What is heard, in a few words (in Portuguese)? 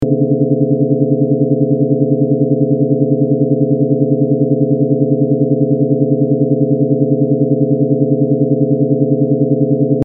Spiricom Sintetizado